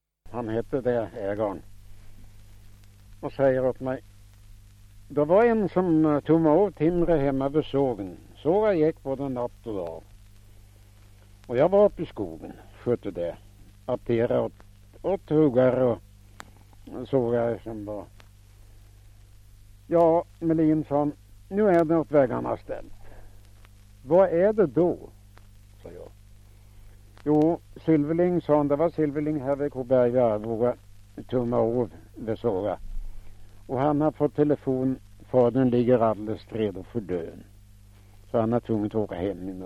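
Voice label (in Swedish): Fellingsbro Västmanland, svenska sagor dialekter; Folkminnen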